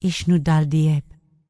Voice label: Night Elf Female Priestess NPC Greeting